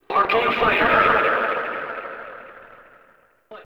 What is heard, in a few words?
Arcade Voices